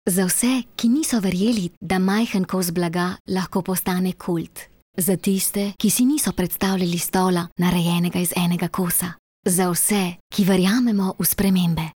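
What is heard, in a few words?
slovenian female narration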